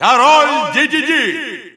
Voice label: King Dedede Russian Announcer; SSBU

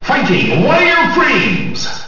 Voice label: Fighting Wire Frames, announcer; SSBM

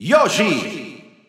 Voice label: Spanish Announcer; Yoshi; SSB4-SSBU

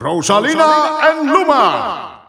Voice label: Dutch Announcer; Rosalina & Luma; SSBU

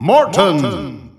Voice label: Dutch Announcer; Morton; SSBU

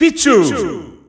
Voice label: French Announcer; Pichu; SSBU

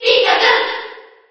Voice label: Cheer JP; Pit; SSB4

